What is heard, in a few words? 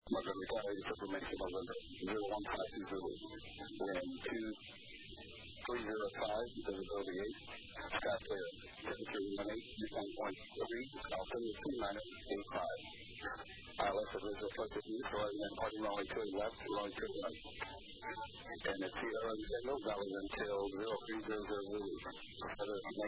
ATIS, USA; MFY